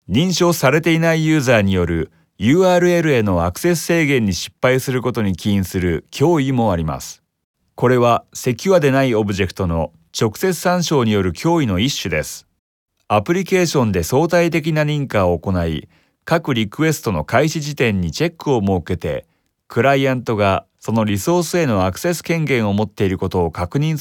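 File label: Japanese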